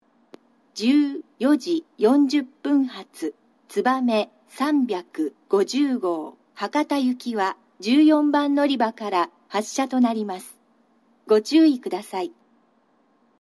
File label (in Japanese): 鹿児島中央駅 のりば変更放送（つばめ350号 博多行き）; 九州新幹線SIRIUS（シリウス）放送